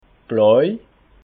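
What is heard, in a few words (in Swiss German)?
"blai", Alsatian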